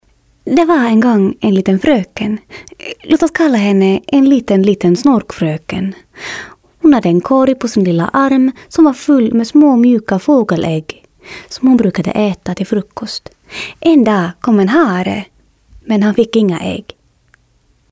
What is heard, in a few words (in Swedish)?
rostprov finlandssvenska; dialekter